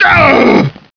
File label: pain; svencoop; cracklife; hgrunt